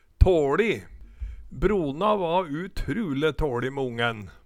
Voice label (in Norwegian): tåLi, Numedalsmål